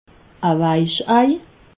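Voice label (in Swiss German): "weich ei", Alsatian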